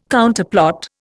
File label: "Counterplot", Indian